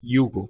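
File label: "jugoM", Proto-Indo-European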